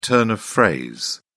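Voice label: "turn of phrase", British English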